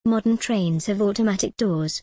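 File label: British English, modern trains have automatic doors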